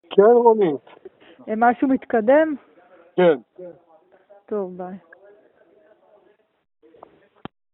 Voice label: Call recording